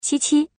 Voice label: slot fruits female